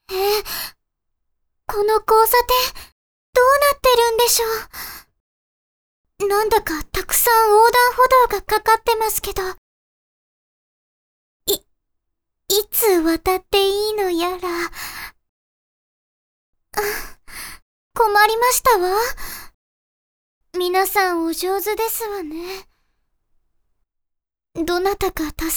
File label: girl